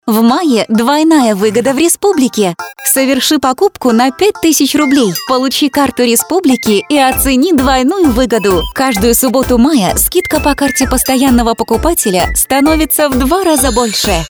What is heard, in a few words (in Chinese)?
俄语女 轻松叙述风格